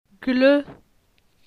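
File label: "Gle", French; phonetique; la syllabe e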